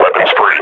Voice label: firing, combine grunt; Half Life Model Pack